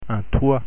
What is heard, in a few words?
"toit", French pronunciation